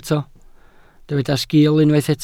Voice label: "sgiil", Welsh

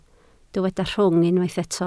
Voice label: "rhwng", Welsh

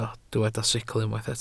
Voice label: "sicl", welsh

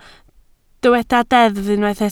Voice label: "deddf", Welsh

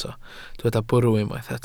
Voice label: "bwrw", Welsh

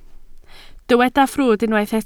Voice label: "ffrwd", Welsh

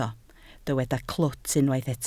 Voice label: "clwt", Welsh

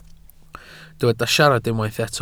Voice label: "siarad", Welsh

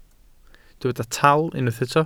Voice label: "tal", welsh

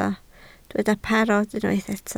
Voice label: "parod", Welsh

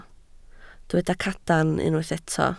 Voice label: "cadarn", Welsh

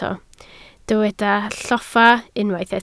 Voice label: "lloffa", Welsh

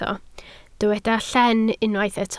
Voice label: "llen", Welsh

